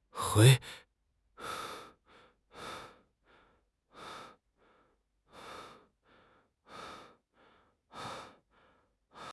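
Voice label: "hui2", Mandarin Chinese; HSK1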